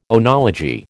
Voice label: "oenology", American